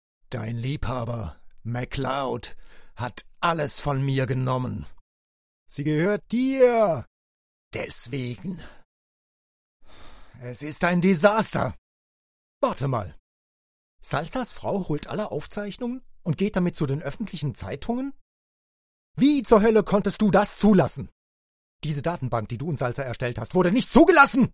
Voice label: Drama, Belgesel, German; DE